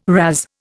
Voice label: "razz", Indian